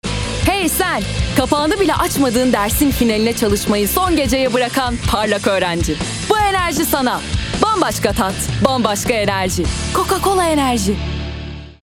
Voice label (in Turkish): enerjik esprili